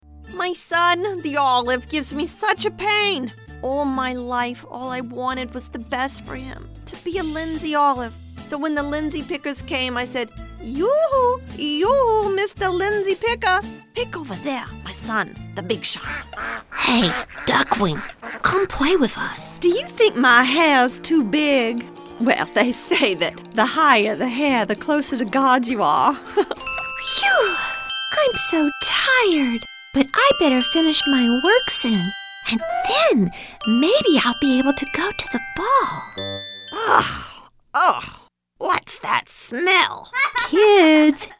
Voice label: Character

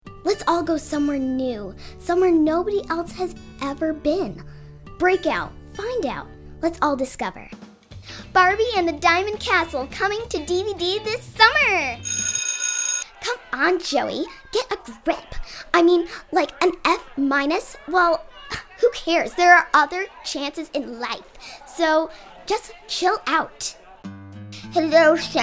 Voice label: VO with Grandma character

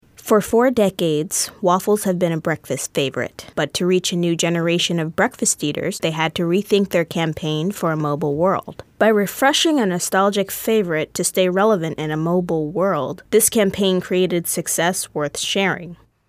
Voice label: E Learning

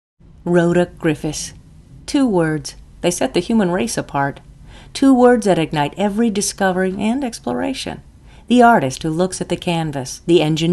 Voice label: Announcer; Emory HC

